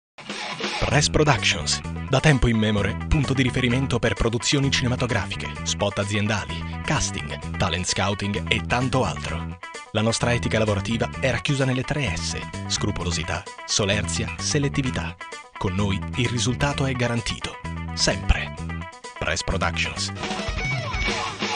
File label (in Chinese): 意大利语男 外语 小语种